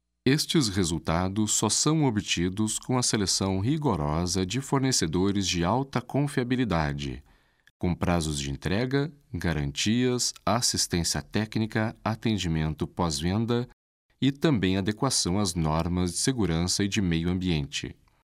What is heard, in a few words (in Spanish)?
locutor brasil; locutor de Brasil